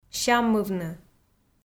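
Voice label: "сяммывны" Komi, female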